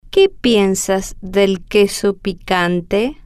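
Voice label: que piensas del queso picante, Spanish, female, slow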